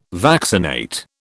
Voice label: "vaccinate", British